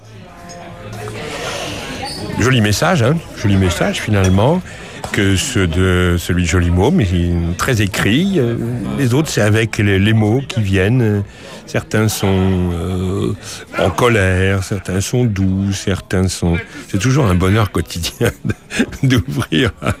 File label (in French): Messages du repondeur; Daniel Mermet